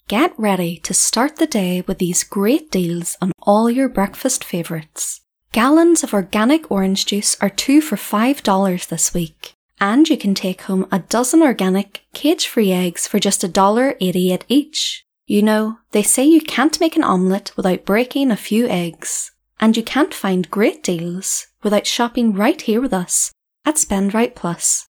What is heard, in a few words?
Casual Conversational